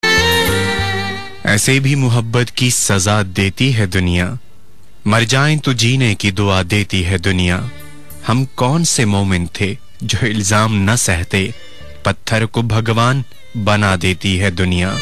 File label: urdu poetry